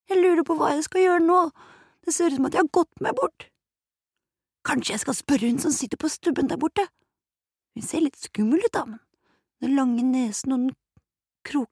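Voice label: Norwegian dub character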